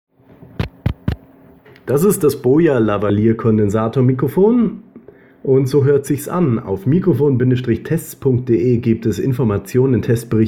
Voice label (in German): Smartphone Mikrofon Test Kondensator Lavalier Mikrofon; Boya